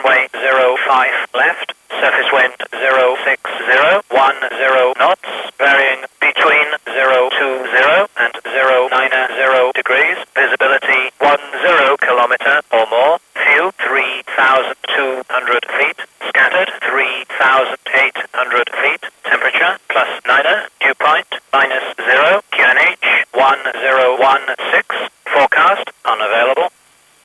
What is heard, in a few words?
ATIS Manchester